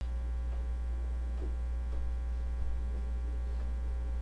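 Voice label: "cartoon", spoken word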